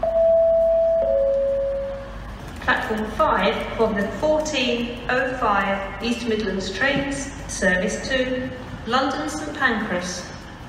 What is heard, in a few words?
Station Announcement